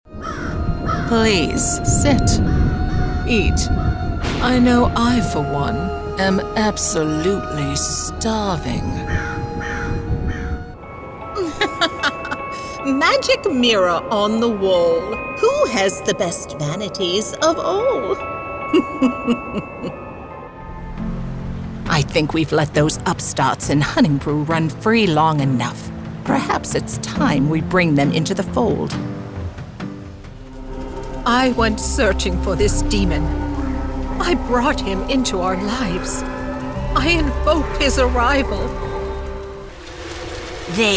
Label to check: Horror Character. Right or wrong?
Right